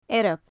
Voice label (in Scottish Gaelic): "earb", gaelic; Faclan Nàdair